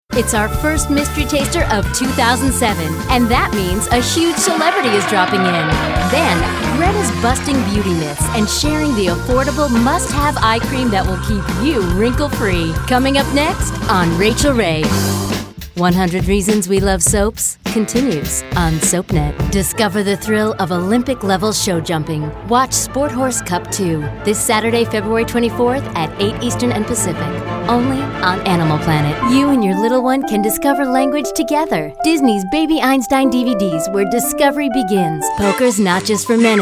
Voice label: promo, women

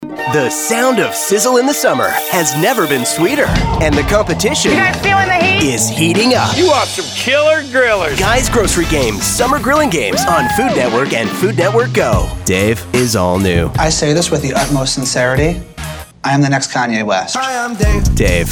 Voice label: promo men

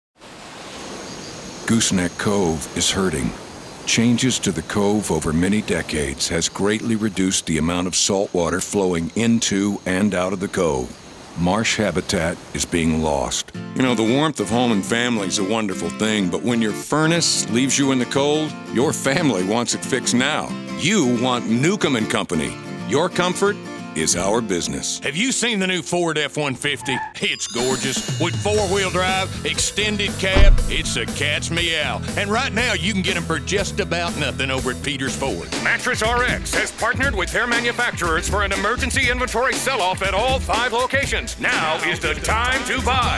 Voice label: commercial, men

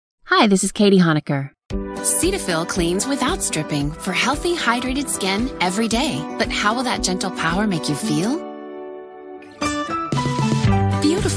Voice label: commercial, commercial women

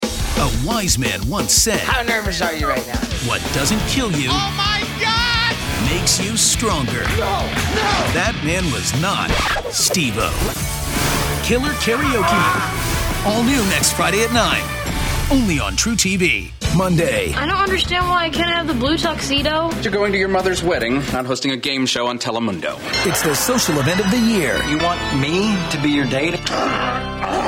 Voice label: promo, men

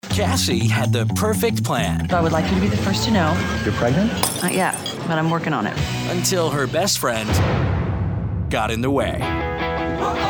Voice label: trailer

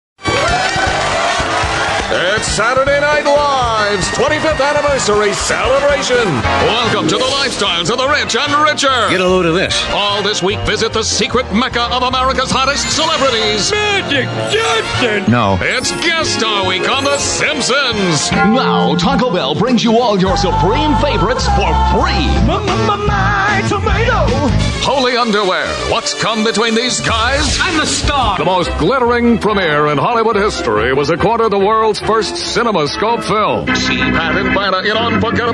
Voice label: animation character fractured narrators, men; voices men

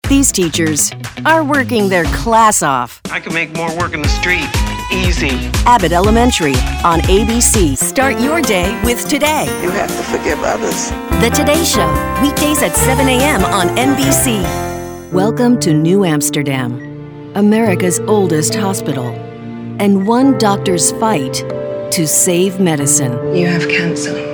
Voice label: promo women

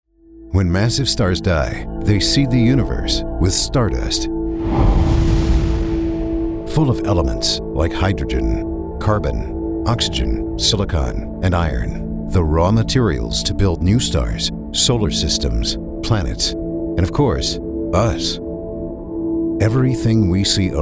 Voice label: narration

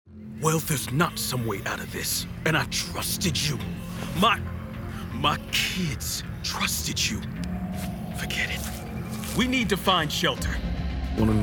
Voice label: animation men interactive

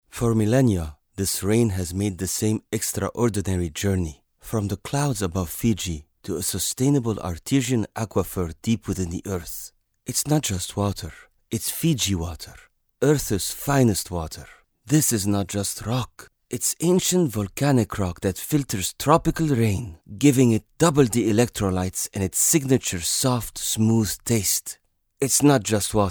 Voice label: languages men arabic accent

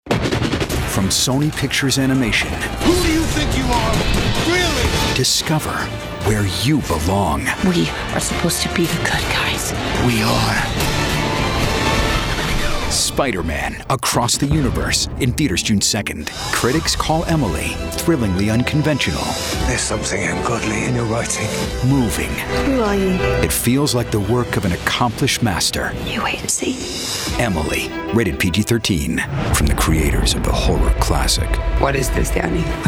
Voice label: trailers men

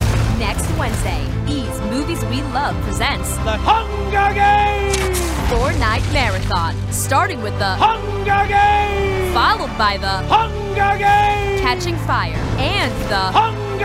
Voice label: promo women

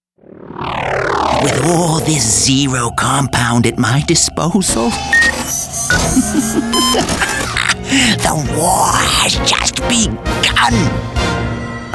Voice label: animation

